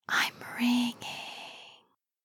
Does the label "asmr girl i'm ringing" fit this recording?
Yes